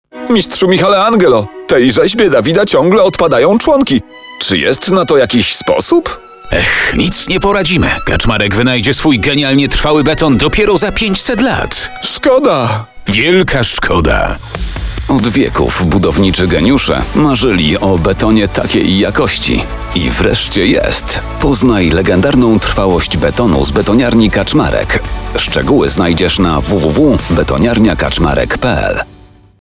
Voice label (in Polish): reklama radio